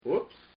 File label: Man Says OOPS; Talking; Voice